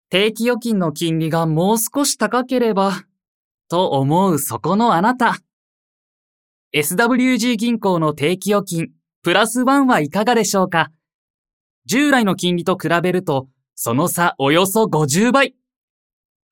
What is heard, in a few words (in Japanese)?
NA ナチュラル